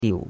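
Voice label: "diu3", Chinese; Cours de Chinois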